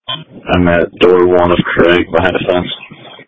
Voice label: Police Tactical Encrypted